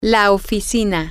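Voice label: the office, spanish, female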